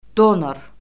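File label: "donor", Azerbaijani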